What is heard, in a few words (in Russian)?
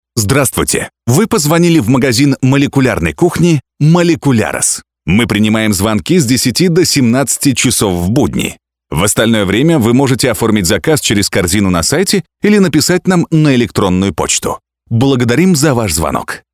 пример автоответчика